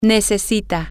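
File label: you need, Spanish, female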